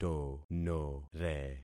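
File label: "tonore", concatenated syllables; Affixing experiment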